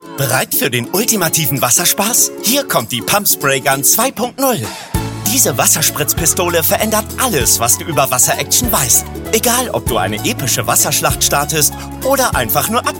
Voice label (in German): Werbung begeistert; Muttersprache Deutsch; pump spray gun